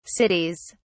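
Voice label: "cities", British English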